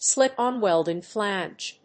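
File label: "slip-on welding flange", English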